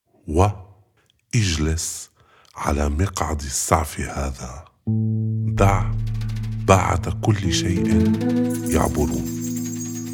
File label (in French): arabe